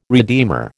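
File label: "redeemer", American English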